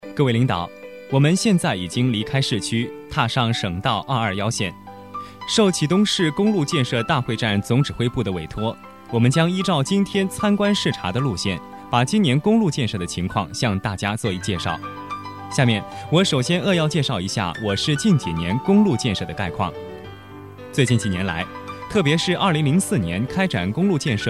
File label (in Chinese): 多媒体 语音导游 工路建设导游, 男